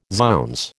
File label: "zounds", American